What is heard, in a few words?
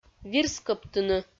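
"вирскӧбтыны", Komi, female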